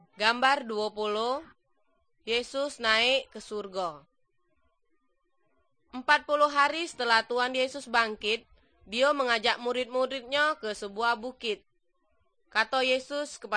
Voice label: Good News Malay Jambi; Malay Christian Resources and Media